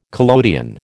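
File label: "collodion", American English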